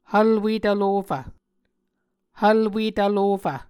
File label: "hvlwe tvlofv", Muscogee